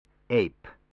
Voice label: "ape", spelling

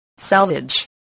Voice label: "salvage", English spelling

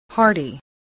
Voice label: "hardy", English, spelling

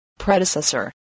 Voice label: "predecessor", voice, spelling

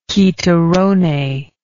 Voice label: "chitarrone", English

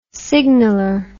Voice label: "signaler", words

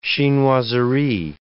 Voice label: "chinoiserie", English

